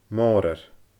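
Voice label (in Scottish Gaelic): "Moorar", Scottish Gaelic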